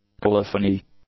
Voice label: "colophony", English

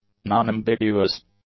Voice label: "non-ambiguous", english; adjectives

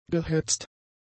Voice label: "gehetzt", German; adjectives

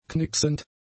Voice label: "knicksend", German; adjectives

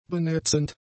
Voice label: "benetzend", German; adjectives

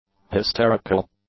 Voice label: "hysterical", English; adjectives

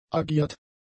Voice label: "agiert", german; adjectives

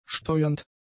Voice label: "steuernd", German; adjectives

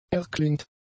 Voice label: "erklingt", German; adjectives

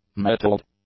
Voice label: "mettled", english; adjectives

